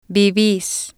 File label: "vivis", Spanish